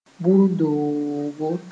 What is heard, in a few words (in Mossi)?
"bur-doogo", moore